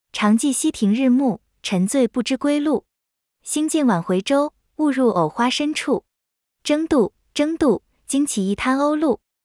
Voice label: chinese poem